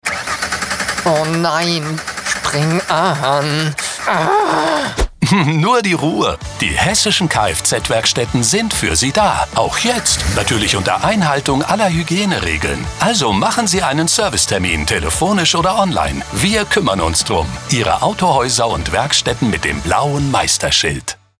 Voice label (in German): Radiospot; Hygienemassnahmen